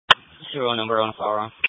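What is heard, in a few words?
Police Patrol (Dispatch)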